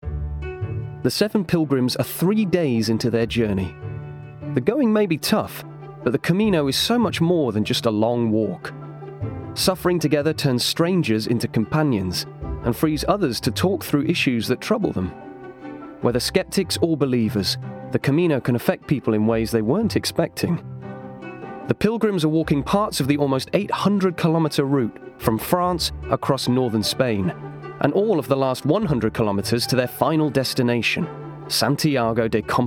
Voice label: doco

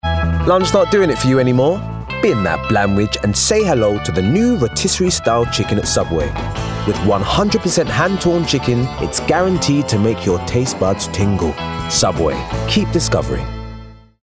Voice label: Commercial; Subway

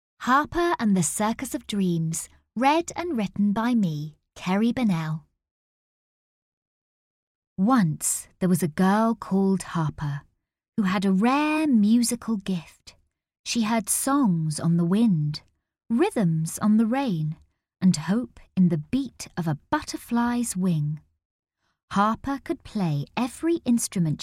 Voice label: Audiobook

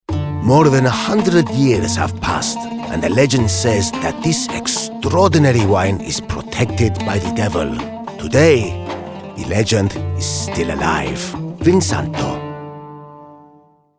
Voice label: Commercial; Vinsanto

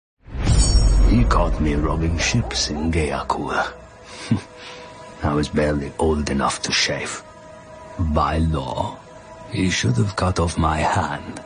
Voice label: Ethnic Voice Reel; Commercial Reel

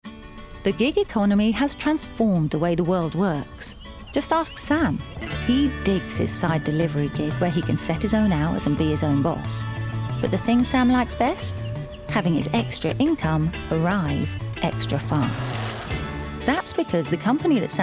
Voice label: Corporate Friendly conversational open; Visa Direct

